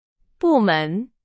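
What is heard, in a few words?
Chinese; hsk3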